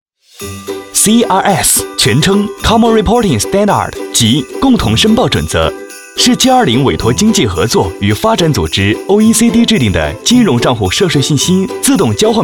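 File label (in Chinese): 男国大音 金融; 【飞碟说】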